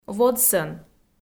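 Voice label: "водсӧн", Komi, female; audio dict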